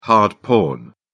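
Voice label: "hard porn", British English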